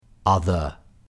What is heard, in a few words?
"other", uk